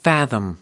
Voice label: "fathom", us